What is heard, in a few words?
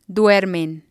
"duermen", Spanish